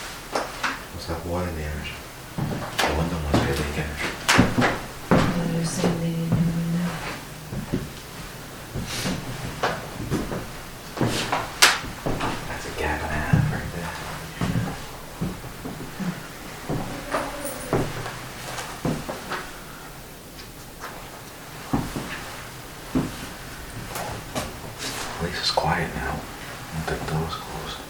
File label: Girls voice